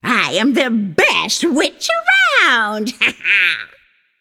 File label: vox emote; smite; babayaga